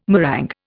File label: "meringue", Indian